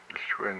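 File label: "you smell something", Hupa, male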